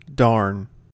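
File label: "darn", US English; pronunciations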